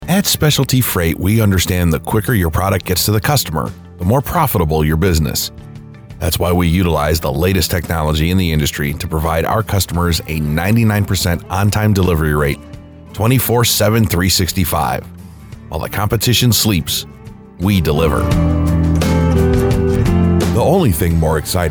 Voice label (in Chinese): 外籍英男大气力度快递公司宣传